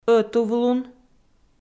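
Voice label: "ӧтувлун", Komi, female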